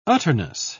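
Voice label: "utterness", British English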